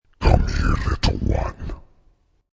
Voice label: Evil Voice Says Come Here Little One; Evil Voices (Sound Effects:Horror)

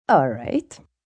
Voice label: "Alright", female; Talking; Response; Voice Box

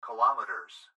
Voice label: Gps Voice, Male; Kilometers; Recorded Voice

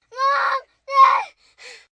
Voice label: Distress Mom Dad, Distress, 1 child, 11 yrs.; Exclamation Sound Effects

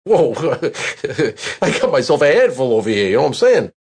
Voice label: Got A Handful Here, Male; Sex Sound Effects

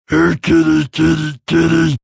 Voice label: Here Kitty Kitty, Male

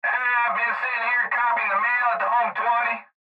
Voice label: Cb Trucker Radio; Cb Radio Male IVe Been Sitting Here Copying; Radio Voice; Blastwave

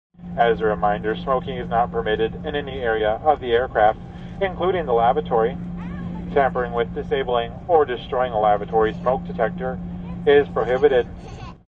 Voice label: Steward announcement regarding smoking on plane.; Stewardess Smoking Info; Airplanes; Vehicles

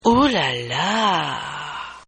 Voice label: Guy Says OOH LALA; Partners In Rhyme

